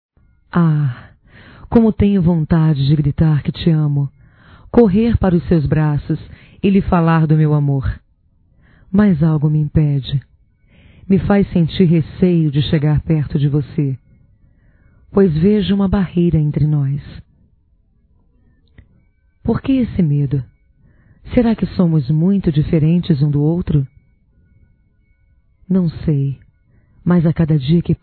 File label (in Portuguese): PRECISAMOS CONVERSAR (F); Românticas